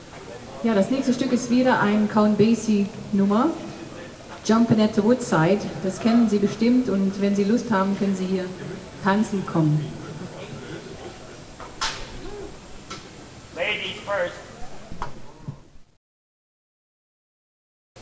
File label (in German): Ansage